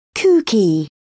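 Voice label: "kooky", English, British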